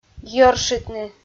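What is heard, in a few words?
"йӧршитны", Komi, female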